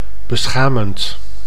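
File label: "beschamend", Dutch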